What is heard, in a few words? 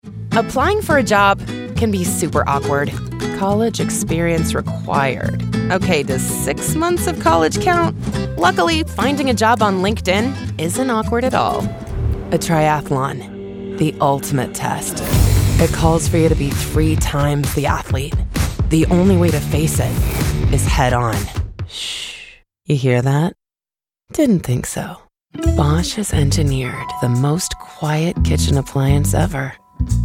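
us commercial, American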